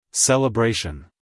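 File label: "celebration", American English male; everyone-can-use-english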